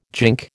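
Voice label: "gink", American English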